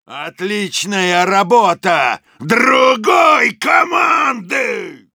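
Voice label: Soldier jeers, russian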